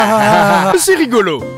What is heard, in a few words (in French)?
ahaha c'est rigolo